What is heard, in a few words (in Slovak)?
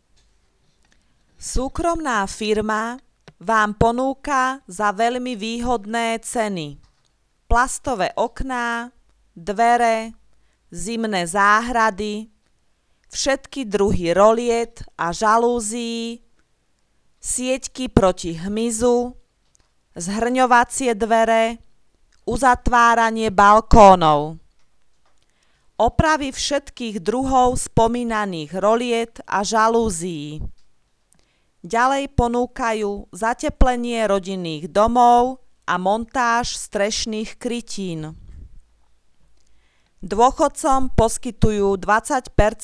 komercne hlasenie; zaluzie